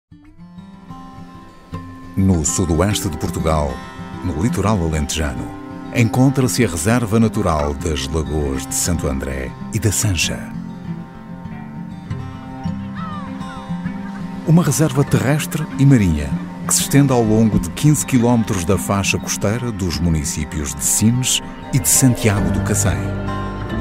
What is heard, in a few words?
portuguese documentaries